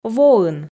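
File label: "воын", Komi, female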